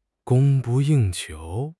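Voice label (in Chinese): "供不应求", Chinese; HSK6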